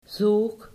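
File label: "zoog", Dutch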